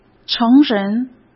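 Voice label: "成人", Mandarin Chinese; hsk; chinese-flashcards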